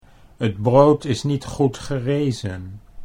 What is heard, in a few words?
"het brood is niet goed gerezen", Dutch